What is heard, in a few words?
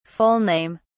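"full name", British English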